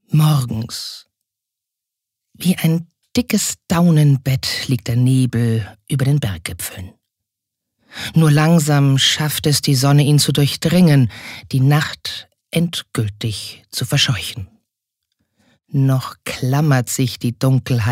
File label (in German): Schauspiel Sanft